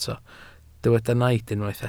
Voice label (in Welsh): "naid" Welsh